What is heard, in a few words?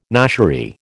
"noshery", American